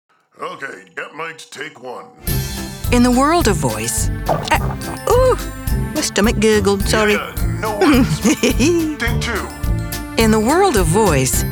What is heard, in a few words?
Commercial